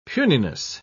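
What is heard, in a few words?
"puniness", British English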